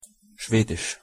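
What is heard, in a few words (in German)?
"schwedisch", German; pronunciation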